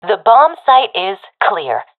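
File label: bombsite clear, professional female; vo; agents; Source Model Packs; Counter Strike Model Pack; CS2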